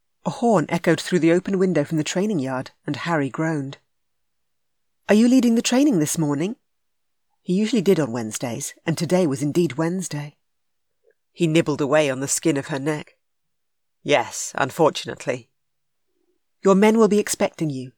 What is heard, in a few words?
commercial sample; To Love During War